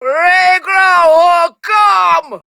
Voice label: Attack, Grineer Queens Guards, Battle Barks, en; Warframe